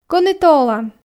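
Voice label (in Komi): кӧні тэ олан, Komi, female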